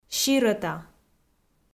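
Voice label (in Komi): "сирӧта", Komi, female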